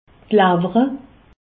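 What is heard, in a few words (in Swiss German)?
"lawer", Alsace, Haut Rhin; foie; medecine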